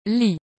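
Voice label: "lis", French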